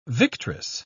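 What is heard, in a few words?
"victress", British English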